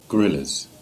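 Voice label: "Grillis", Cornish; place-names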